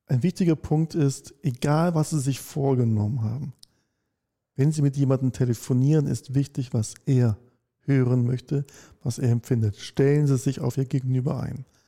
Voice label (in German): telefon